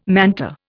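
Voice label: "manta", Indian English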